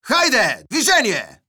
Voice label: neutral